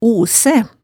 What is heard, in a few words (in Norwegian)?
"osæ", Hemsedal